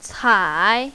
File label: "cai3", mandarin, female